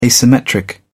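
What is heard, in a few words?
"asymmetric", British English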